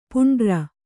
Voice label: "ಪಾಂಡುರ", Kannada

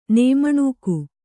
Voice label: "ನೇಮಣುಕಿ", Kannada

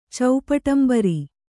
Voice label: "ಚೌಪಟಂಬರಿ", Kannada

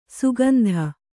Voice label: "ಸುಗಂಧ", Kannada

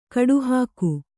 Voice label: "ಕಡುಹಾಕು", Kannada

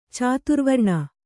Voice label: "ಚತುರ್ವರ್ಣ", Kannada

